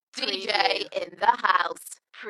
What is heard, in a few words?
UK female, Dalekness; beanstalk audio